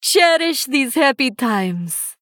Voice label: Female Draenei, HAPPY HOLIDAYS

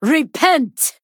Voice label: Female Draenei Attack

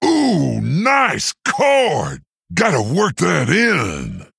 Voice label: Male Quilboar Hero Power

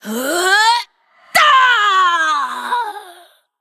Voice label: Female Lich Death

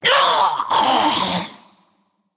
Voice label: Female Naga Death

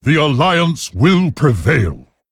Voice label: Male Worgen Play; Genn

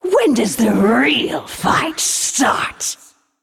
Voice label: Female Naga Play

